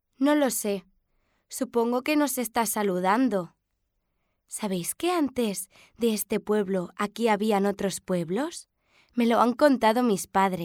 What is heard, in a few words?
female spanish tv; ideasonora